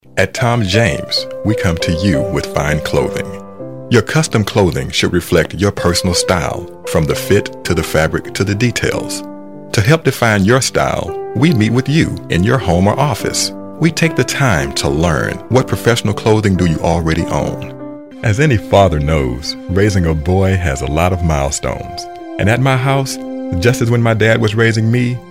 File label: commercial